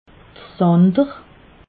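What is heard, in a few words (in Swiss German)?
"zander", Alsatian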